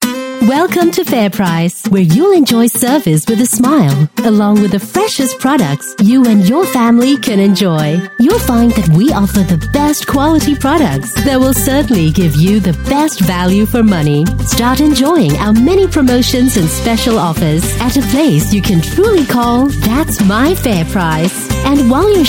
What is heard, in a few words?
Asian English Commercial English In Store; Fairprice